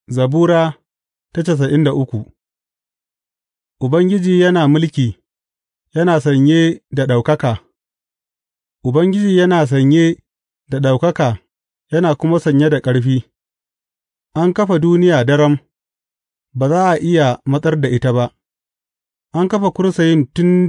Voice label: hausa bible drama, psalms; hausa bsn nt drama